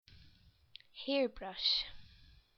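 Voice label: "hairbrush", English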